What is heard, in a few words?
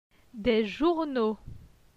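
"Des journaux", French; phonetique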